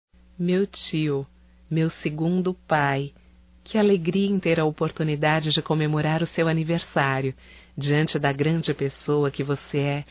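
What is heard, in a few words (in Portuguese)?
female, Telemensagens Aniversarios; ANIV TIO(F)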